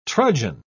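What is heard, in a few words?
"trudgen", British English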